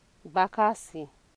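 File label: "bakassi", Nigerian Pidgin, female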